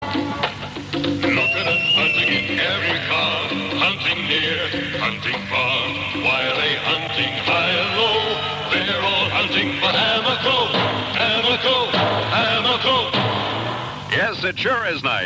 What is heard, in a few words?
advert; Amaco